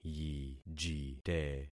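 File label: "yijite", concatenated syllables; Affixing experiment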